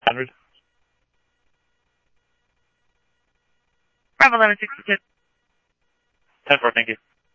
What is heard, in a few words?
Police, scanner